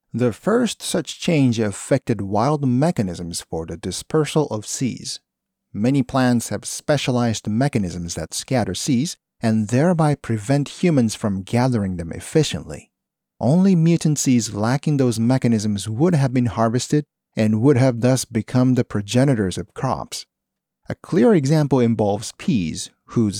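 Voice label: Japanese, male, English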